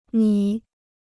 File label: "米", Chinese